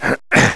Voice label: push, male; Odyssey Demo